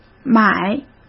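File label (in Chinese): "买", Mandarin Chinese; hsk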